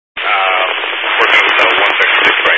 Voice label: Highway Patrol, scanner; DPS

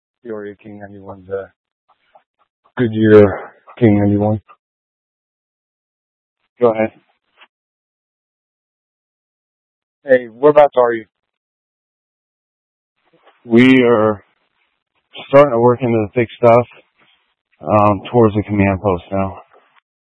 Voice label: Mutual Aid-Intersystem Interop B, scanner

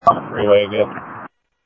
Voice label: Mesa Simulcast police; jumper

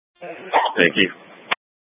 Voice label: Public Transit System Light Rail Ops, scanner

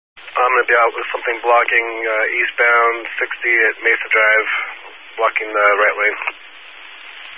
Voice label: Highway Patrol, scanner

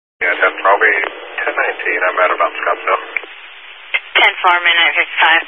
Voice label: Highway Patrol, Phoenix Metro East Area, scanner

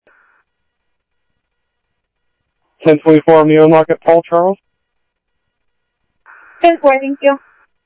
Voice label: Security, scanner audio

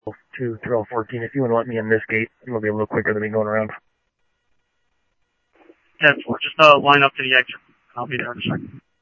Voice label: Police, scanner audio

